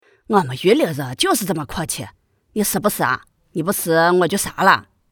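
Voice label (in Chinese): 陕北 女 陕北方言样音女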